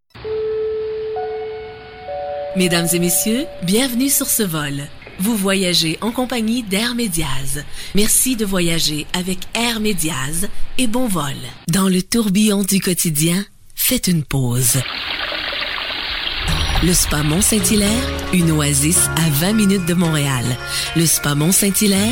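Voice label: female, Canadian French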